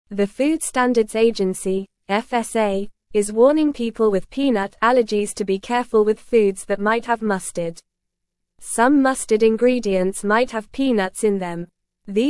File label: English Lower Intermediate NORMAL Reading; Warning for Peanut Allergies Check Foods with Mustard